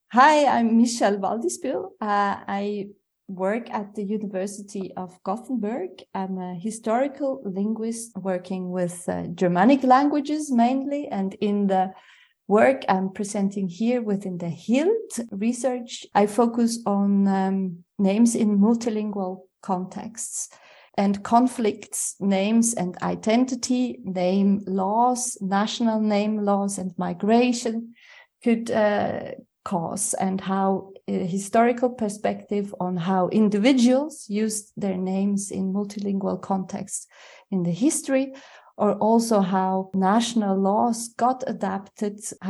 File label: abstract english